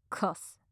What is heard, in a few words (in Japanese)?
"カス" 強く 近 右, ASMR